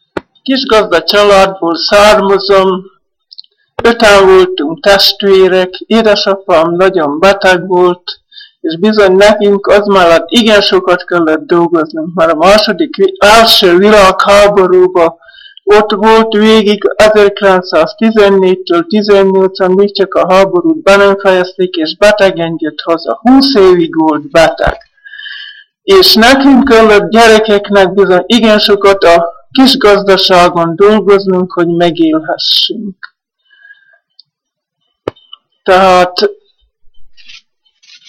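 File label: dialect collection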